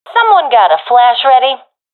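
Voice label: request flash, professional female; vo; Counter Strike Model Pack; CS:GO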